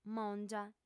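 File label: "mòngia", female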